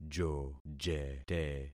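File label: "jojete", concatenated syllables; Affixing experiment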